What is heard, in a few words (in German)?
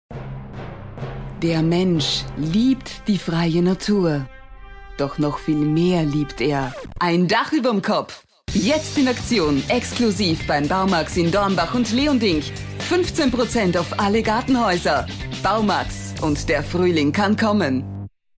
werbung